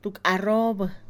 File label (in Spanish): una arroba, Mixe, Mujer; Cantidad; INALI